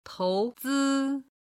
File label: "touzi", chinese